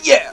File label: "Yeah", voice